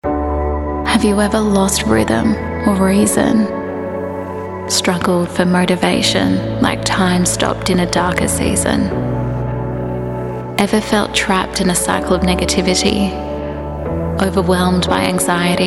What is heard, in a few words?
Soft natural moving Commercial, Australian female